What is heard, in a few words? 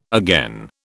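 "again", British English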